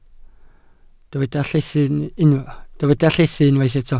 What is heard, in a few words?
"llethu", Welsh